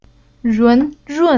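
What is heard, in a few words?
"Run", Chinese syllable; Уроки фонетического блока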